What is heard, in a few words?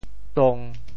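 "tong1", Teochew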